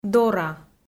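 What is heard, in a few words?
"дора", Komi, female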